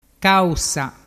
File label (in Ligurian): "causa", dialettu